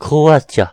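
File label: "croatia", Breton; gcompris; geography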